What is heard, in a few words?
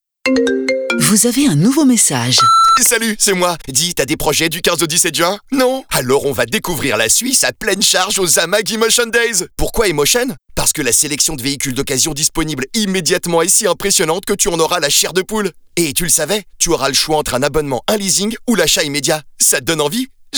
Radiospot FR; AMAG; emotion days 06 2023